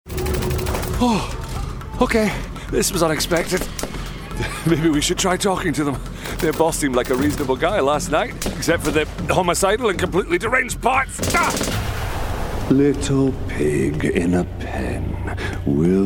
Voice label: Games Voices

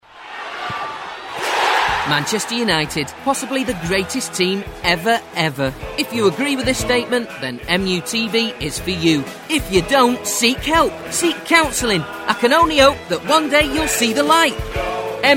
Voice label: Commercial; Mutv; Vocal Point

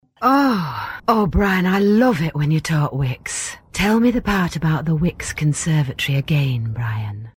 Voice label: Commercial; Wickes; Vocal Point

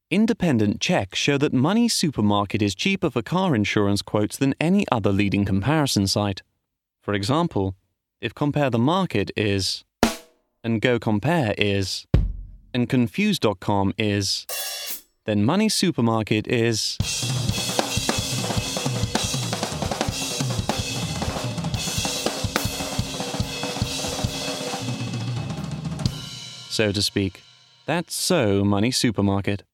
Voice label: RP; Money Supermarket

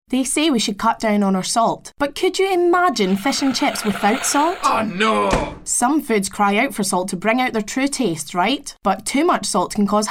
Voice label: Commercial; Losalt

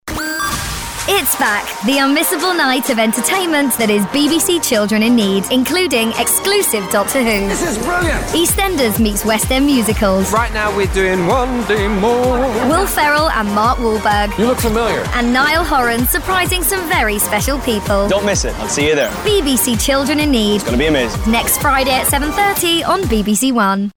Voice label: Promo; BBC Children In Need

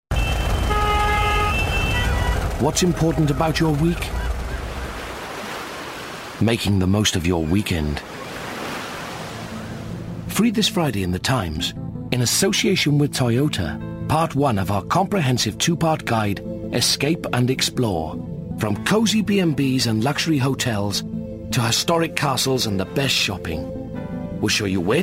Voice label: Commercial; The times; Vocal Point

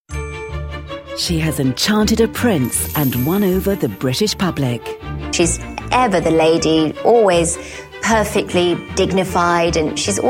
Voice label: Narration; Kate Middleton; Vocal Point

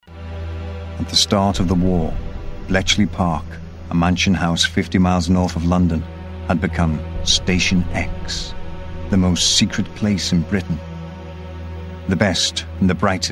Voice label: Narration; Station X; Vocal Point

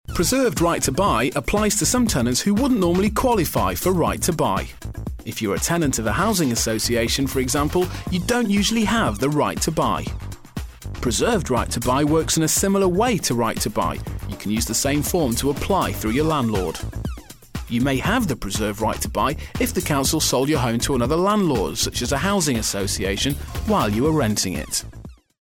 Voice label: Corporate; Right To Buy; Vocal Point

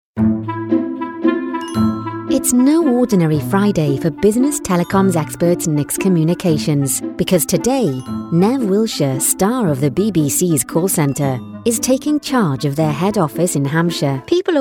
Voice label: Narration English

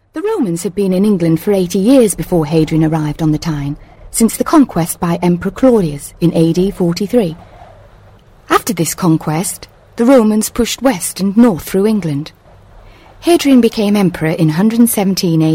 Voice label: Narration; Hadrians wall

